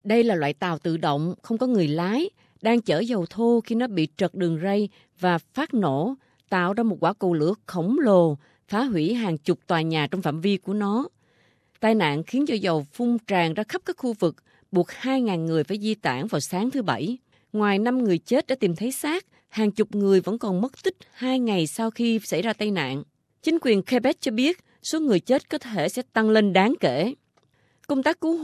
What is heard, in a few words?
vietnamese